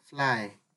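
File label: "fly", Nigerian Pidgin, male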